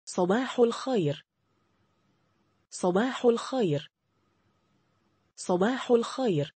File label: sabah alkhyr Good morning in Arabic Arabic Pronunciation